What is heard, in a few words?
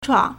"chuǎng", Chinese